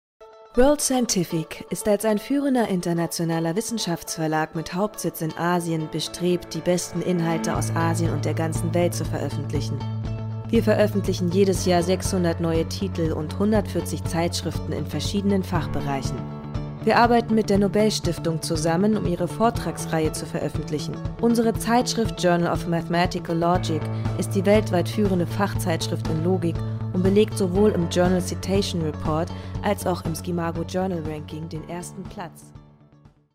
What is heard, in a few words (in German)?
Imagetrailer wissenschaftlicher Text; LOFT VOICES